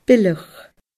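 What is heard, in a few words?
"bileach", Scottish Gaelic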